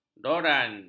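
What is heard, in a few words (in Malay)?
"doran", Malay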